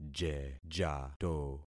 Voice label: "jejato", concat syllables; Affixing experiment

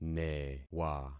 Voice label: "newa", concat syllables; Affixing experiment

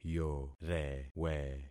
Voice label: "yorewe", concat syllables; Affixing experiment

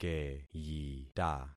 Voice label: "keyita", concat syllables; Affixing experiment

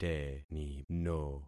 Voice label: "tenino", concat syllables; Affixing experiment

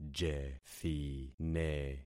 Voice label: "jethine", concat syllables; Affixing experiment

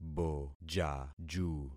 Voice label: "bojaju", concat syllables; Affixing experiment

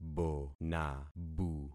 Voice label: "bonabu", concat syllables; Affixing experiment

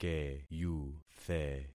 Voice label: "keyuthe", concat syllables; Affixing experiment

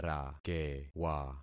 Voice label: "rakewa", concat syllables; Affixing experiment

